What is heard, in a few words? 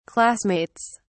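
"classmates", English, uk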